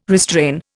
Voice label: "restrain", Indian